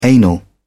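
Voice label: "anal", British English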